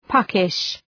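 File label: "puckish", English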